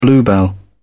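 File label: "bluebell", British English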